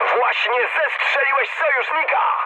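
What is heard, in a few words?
Polish player killed ally mood high; speech; War Thunder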